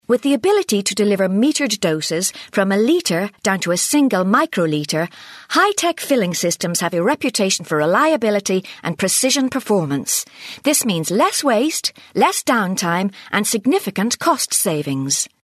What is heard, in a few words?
Irish corp